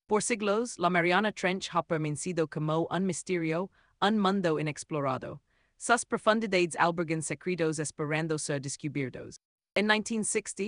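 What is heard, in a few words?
voiceover, Spanish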